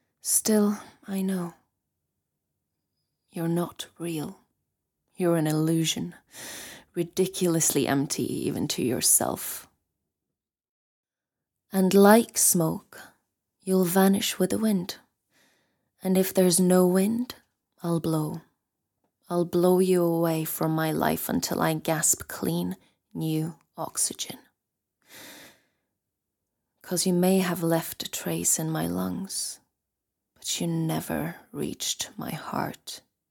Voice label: Accented English